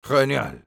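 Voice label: negative vocalization, Spanish; Genial.; Sniper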